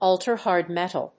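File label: "ultrahard metal", English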